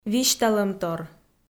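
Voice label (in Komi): "висьталӧмтор", Komi, female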